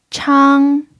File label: "chang1", pinyin